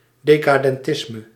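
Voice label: "decadentisme", Dutch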